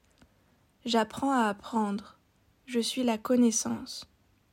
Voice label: I Am Knowledge French Female

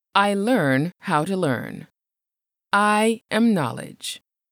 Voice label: English Female; I Am Knowledge

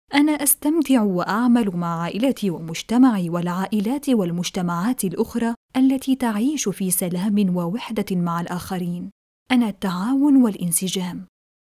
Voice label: I Am Cooperation and Harmony Arabic Female

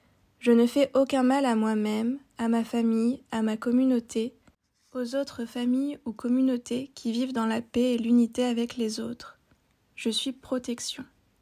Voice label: I Am Protection, French Female

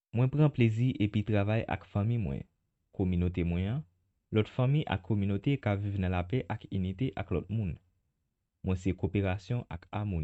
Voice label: Creole Male; I Am Cooperation and Harmony

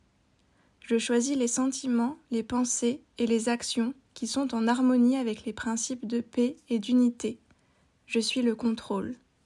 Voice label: French Female; I Am Control

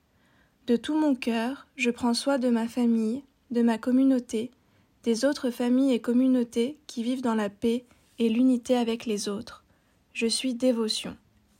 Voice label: I Am Devotion French Female

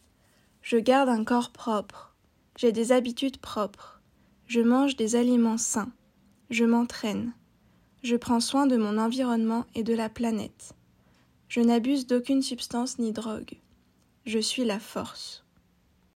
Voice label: French Female; I Am Strength

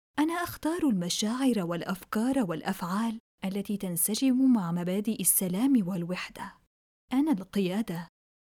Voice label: I Am Control Arabic Female

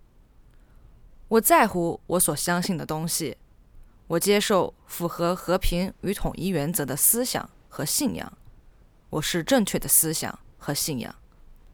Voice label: I Am Correct Ideas and Beliefs Chinese Female

